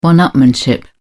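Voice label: "one-upmanship", English, British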